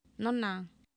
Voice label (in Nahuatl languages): "nonaan", Oapan, female; Oapan minimal pairs 1998